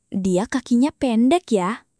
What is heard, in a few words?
indonesian phrases